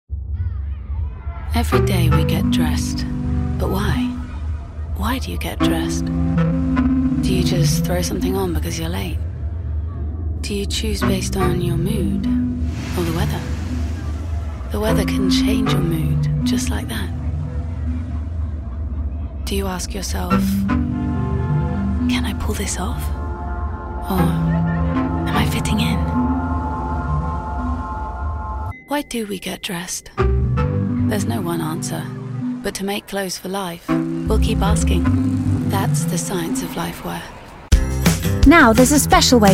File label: Commercial